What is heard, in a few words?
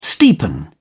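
"steepen", British English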